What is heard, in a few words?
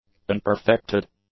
"unperfected", english; adjectives